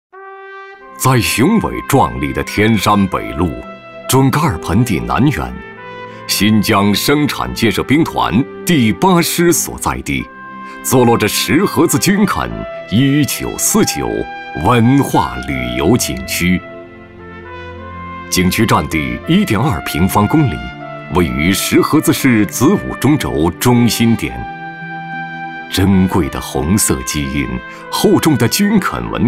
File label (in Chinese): 男 专题片 军垦旅游区 大气沉稳